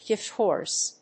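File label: "gift horse", English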